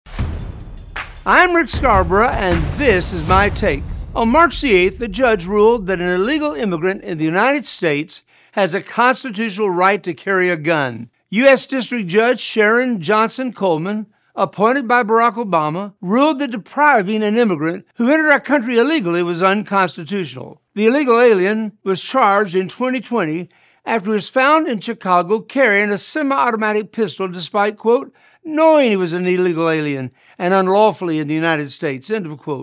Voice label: radio spot